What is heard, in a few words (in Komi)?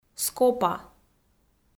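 "скопа", Komi-Zyrian, female; kpv audio dict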